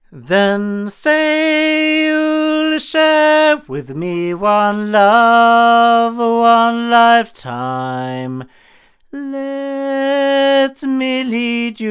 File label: male; BLUE Babybottle; microphones